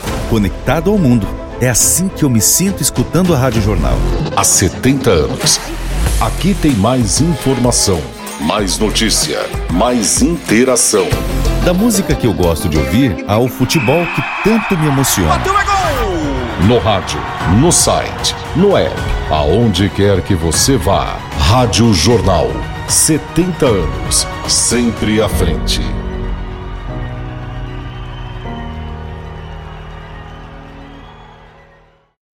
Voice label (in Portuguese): chamada radio institucional; radio jornal 70 anos